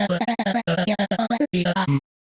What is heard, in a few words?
breathy, voice archetypes, timbre; voxel audio preview